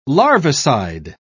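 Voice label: "larvicide", British English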